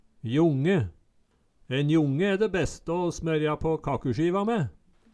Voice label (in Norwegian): jonge, Numedalsmål